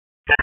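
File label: Raleigh Police North District Tactical; wake; p25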